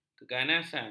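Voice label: "keganasan", Malay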